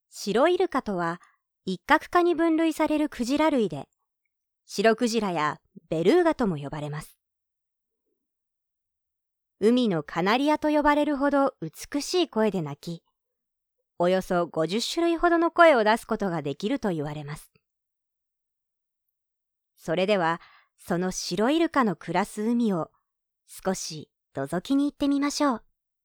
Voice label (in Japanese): ナレーション